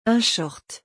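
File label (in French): "Un short", French; vocabulaire; le lexique des vacances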